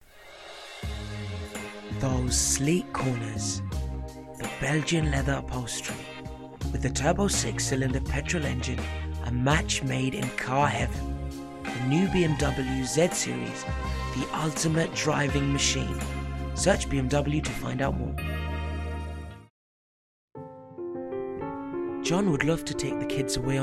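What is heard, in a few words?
British English Commercial; GoLocalise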